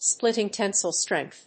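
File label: "splitting tensile strength", English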